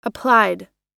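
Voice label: "applied", US English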